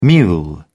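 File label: "mule", British English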